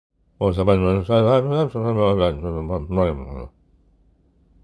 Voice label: Man Mumble

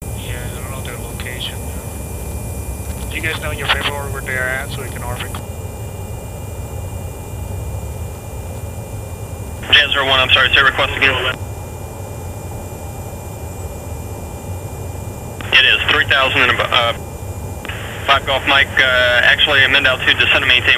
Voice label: Military Plane Radio Chatter

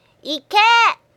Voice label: Attack Go In Japanese

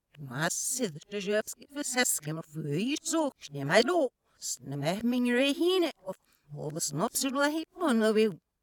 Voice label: Teacher reversed

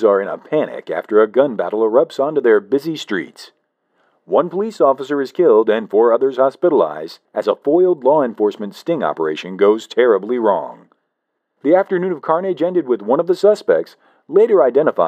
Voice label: Alliterative Am Radio Crime News Reporter

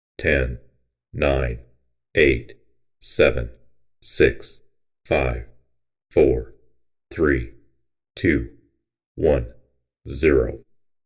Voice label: Countdown Nasa Style But No Tannoy No Pa

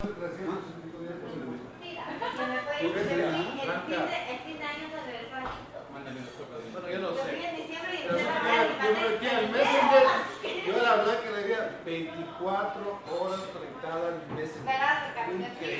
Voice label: Conversation

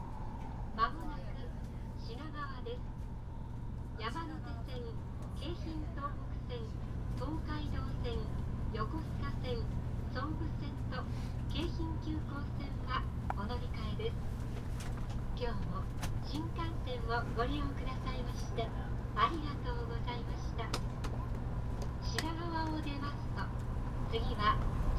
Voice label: Shinkansen Announcement

